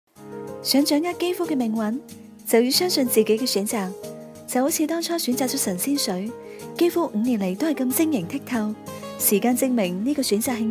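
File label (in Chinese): 女粤16 港式粤语广式粤语Commercials 娓娓道来 积极向上 时尚活力 亲切甜美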